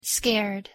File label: pronunciation en scared